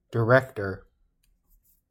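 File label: "director", American English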